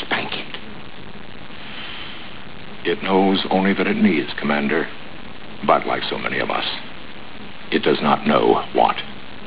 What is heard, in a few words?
child